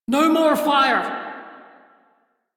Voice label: crew fix fire, female; Dialog; en; Warframe; Railjack Crew; Steel Meridian